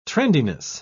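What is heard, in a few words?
"trendiness", British English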